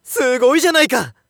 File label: Narration WIN; Hanyou